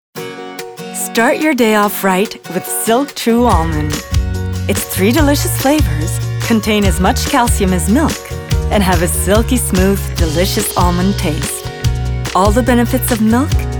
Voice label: Eng; Silk Almond